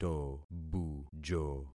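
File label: "tobujo", concatenated syllables; Affixing experiment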